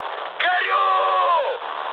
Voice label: on fire, mood high, speech ru; War Thunder